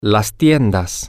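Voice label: male, spanish; the Stores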